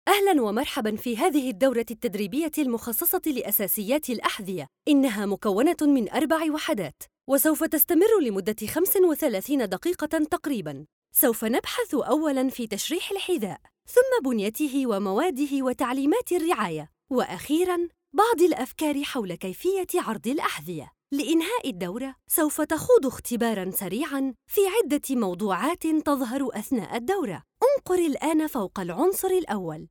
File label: arabic